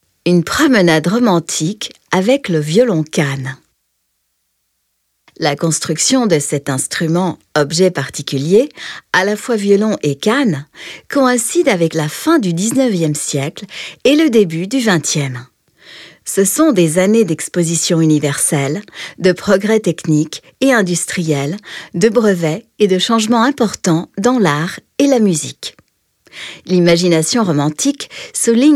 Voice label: audioguia french; delamusica